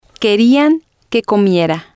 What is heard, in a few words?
"querian que comiera", spanish